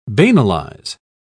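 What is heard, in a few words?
"banalize", British English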